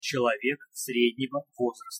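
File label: "celovek srednego vozrasta", Russian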